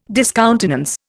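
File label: "discountenance", Indian English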